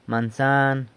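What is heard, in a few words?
"mansan", San Lucas Quiaviní Zapotec; apple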